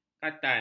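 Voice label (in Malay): "khatan", Malay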